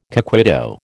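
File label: "coquito", American English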